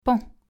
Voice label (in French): "paon", French; nasal